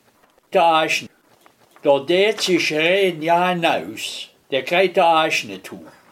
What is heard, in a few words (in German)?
"Ahsch" mit Satz; heimatfreunde weibern